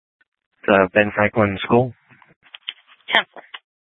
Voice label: Sheriff, scanner; Maricopa County (P25) - Thompson Peak; Shrf Northeast